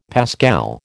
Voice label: "pascal", American

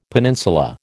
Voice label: "peninsula", American

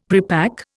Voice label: "prepack", Indian; Dictionary

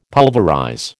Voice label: "pulverize", American

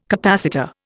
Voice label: "capacitor", Indian

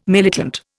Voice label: "militant", Indian

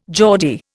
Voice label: "Geordie", Indian

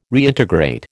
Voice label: "Reintegrate", American

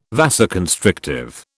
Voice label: "vasoconstrictive", British

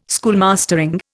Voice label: "schoolmastering", Indian

